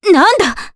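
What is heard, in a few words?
Vox Sur Japanese; Scarlet; kings raid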